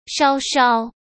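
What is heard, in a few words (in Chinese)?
"稍稍", Mandarin; chinese-flashcards; hsk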